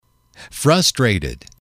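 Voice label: "frustrated", english, male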